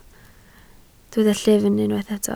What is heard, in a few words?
"llyfn", Welsh